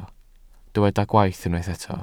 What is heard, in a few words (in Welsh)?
"gwaith" Welsh